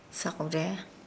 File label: Nukuoro; marlin